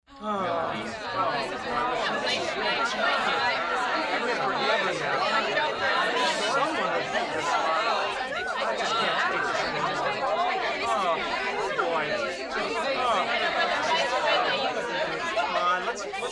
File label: Annoyed Chatter, party